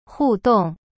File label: "互动", Chinese; hu dong